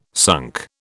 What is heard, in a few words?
"sunk", British